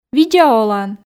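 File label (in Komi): "видза олан", female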